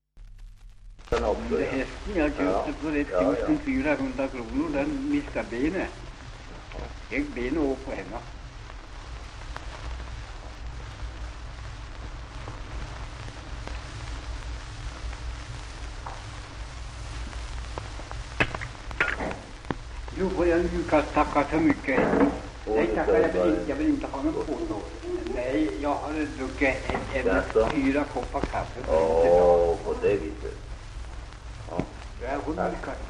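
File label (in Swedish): Dingtuna Västmanland, dialekter; svenska sagor; Folkminnen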